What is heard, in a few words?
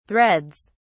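"threads", British English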